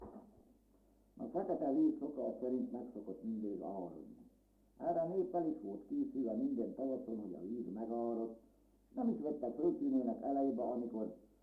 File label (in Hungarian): nyelvjaras gyujtesek